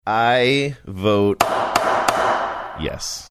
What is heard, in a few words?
saying i vote yes while playing gavel pounding drop